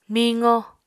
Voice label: "miqo", Apatani